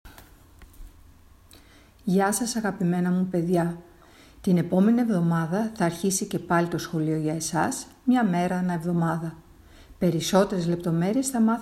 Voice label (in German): Griechisch